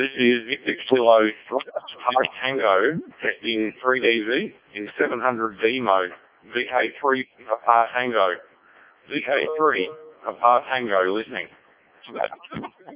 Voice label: decoded speech, 7177KHz